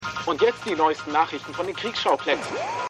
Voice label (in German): Radiosprecher